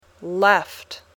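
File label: "left", English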